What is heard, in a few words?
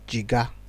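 "jiga", Nigerian Pidgin, male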